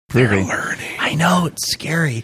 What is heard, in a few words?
whispering they're learning